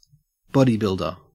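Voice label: "bodybuilder", English, Australian accent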